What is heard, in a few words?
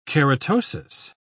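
"keratosis", British English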